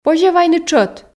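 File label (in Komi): позьӧ вайны тшӧт, Komi, female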